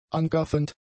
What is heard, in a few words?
"angaffend", German; adjectives